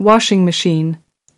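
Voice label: "washing machine", English word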